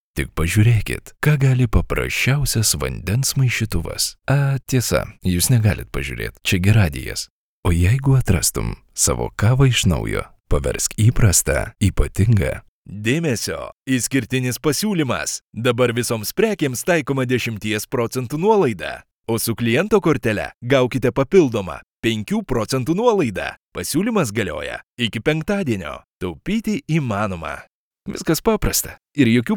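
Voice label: Lithuanian, male, commercial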